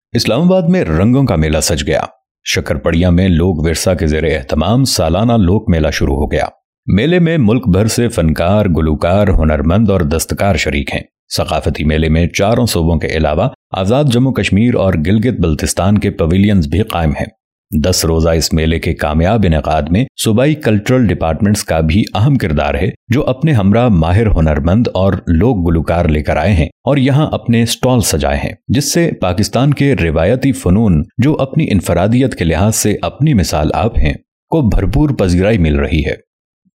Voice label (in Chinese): 乌尔都语男